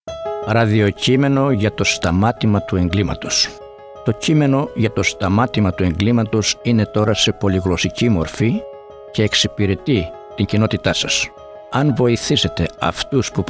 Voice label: Greek; Crime Stoppers

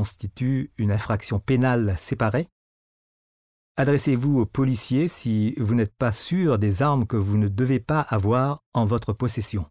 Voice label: orders about weapons french

